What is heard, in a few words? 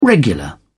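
"regular", British English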